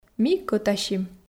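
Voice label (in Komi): "ми кӧтасим", Komi, female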